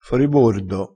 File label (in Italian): "foribordo", crespinese